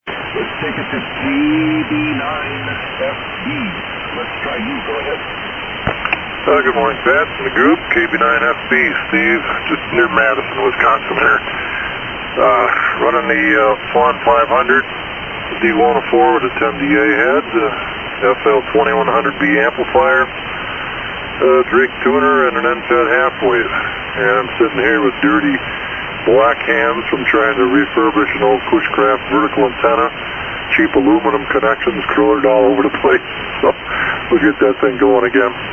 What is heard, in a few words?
Swan-500 D-104 Mic & FL-2100B Amp; DeForest, Wi.